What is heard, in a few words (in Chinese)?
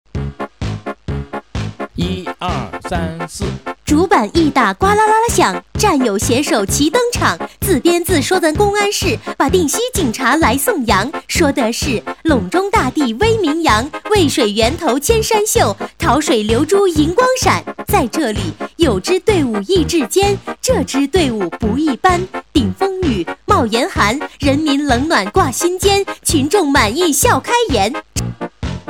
女 快板 警察, 国语女声配音; 省台女配